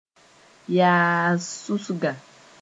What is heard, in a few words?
"yaas-susga", Moore